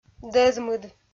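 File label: "дӧзмыд", Komi, female; kpv audio dict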